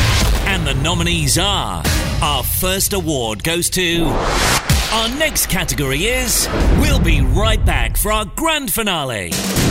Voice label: awards demo